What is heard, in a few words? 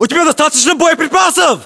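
status check, battlechatter, Russian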